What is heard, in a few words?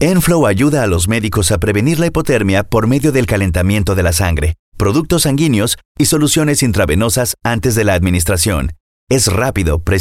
spanish voice over male commercial